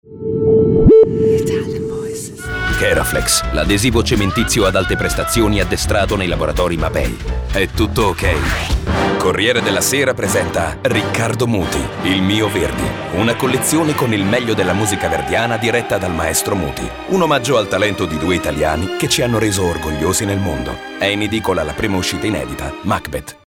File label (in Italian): ITALIAN VOICES Powerful Harsh, male voices